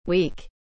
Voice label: "weak", English